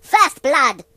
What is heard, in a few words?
First blood, British; hedgewars